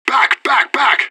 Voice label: radio bot fall back; fbihrt